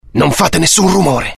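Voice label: curious, Italian; mohaa; dialogue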